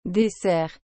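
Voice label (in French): "dessert", French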